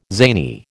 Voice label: "zany", American